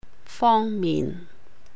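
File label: "fòng mihn", Cantonese; side, aspect; topic, subject; 方面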